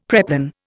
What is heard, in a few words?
"preplan", Indian; Dictionary